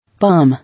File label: "Palm", English